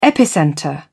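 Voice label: "epicentre", British English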